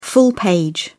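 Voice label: "full-page", British English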